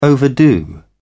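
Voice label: "overdo", British English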